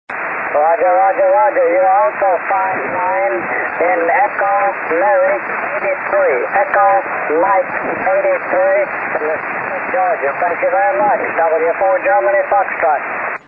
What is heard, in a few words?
6M SSB